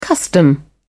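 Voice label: "custom", British English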